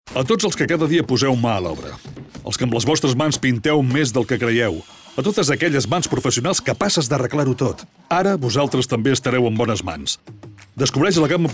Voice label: male catalan car comercial